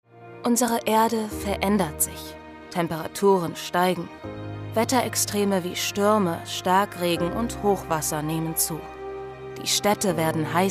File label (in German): Imagefilm; ZKA